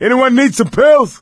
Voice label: spot pills; Left 4 Dead 2; coach